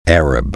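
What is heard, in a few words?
"arab", spoken word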